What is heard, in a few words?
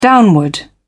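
"downward", British English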